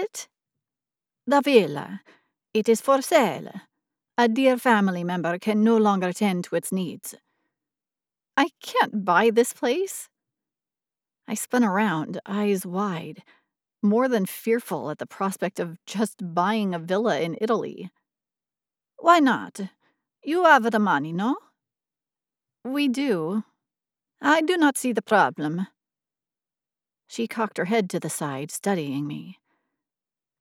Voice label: Fiction Italian Accent